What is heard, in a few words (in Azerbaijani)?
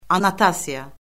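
"annotasiya", Azerbaijani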